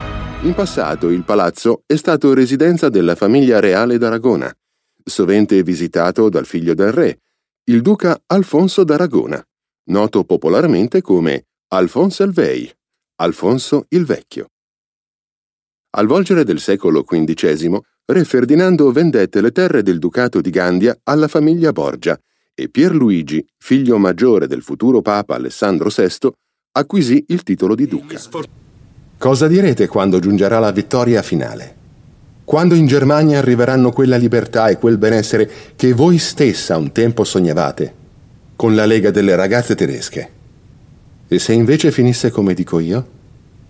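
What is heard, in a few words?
Italian, Narrative; voice demos; GoLocalise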